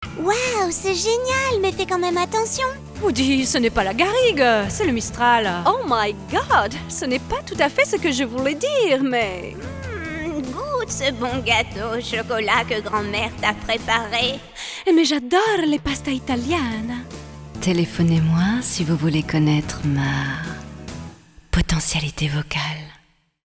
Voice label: locutora francesa voces french voiceover

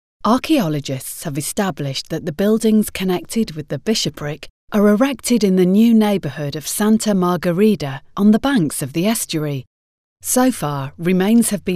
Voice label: locutora inglesa english voiceover audioguide; English voice talent

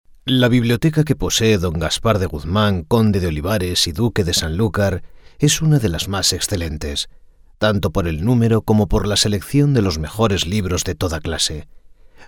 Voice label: locutor spanish voiceover

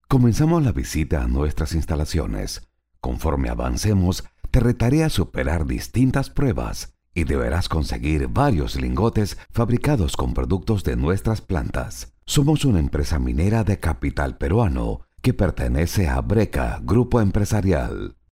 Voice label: locutor peruano peruvian voiceover

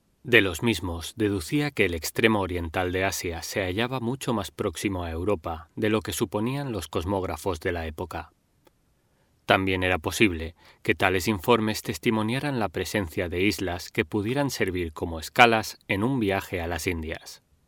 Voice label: locutor spanish voiceover

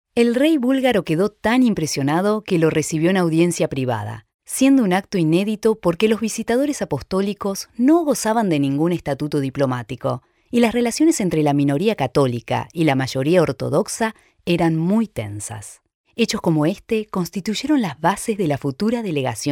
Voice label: locutora argentina argentinan voiceover; Argentinian voice over

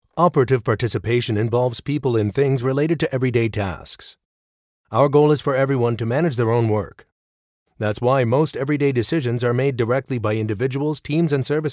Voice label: locutor norteamericano english american voiceover

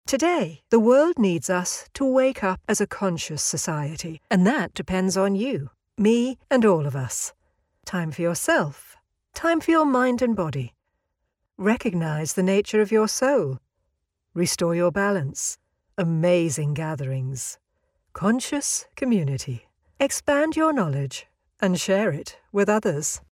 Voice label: locutora inglesa english voiceover

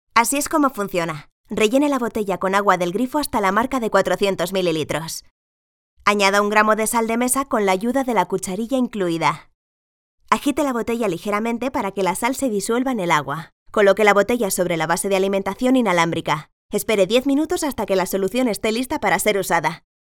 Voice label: locutora spanish voiceover

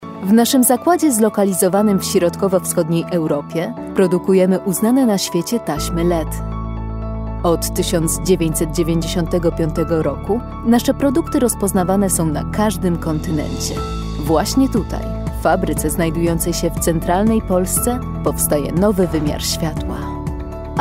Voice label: locutora polaca polish voiceover

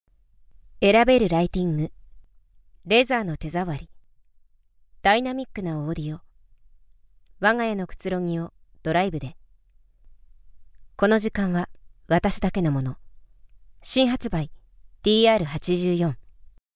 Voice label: locutora japonesa japanese voiceover

